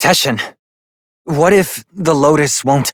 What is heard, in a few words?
Operator Voice; New War; Torpedo